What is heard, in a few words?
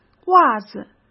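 "袜子", Mandarin Chinese; chinese-flashcards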